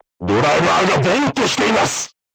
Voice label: voice message driver contused, crew tanks japanese